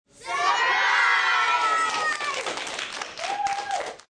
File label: Group Surprise, Occasion, Group, 10-12 children, 'Surprise'!; Crowd Sound Effects